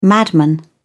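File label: "madman", British English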